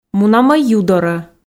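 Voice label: "мунамӧй ю дорӧ", Komi, female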